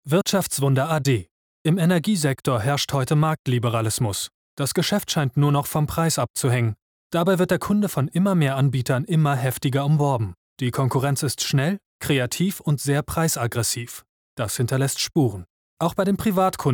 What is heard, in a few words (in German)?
nachrichten